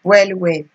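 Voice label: "well well", Nigerian Pidgin, female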